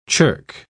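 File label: "chirk", English, British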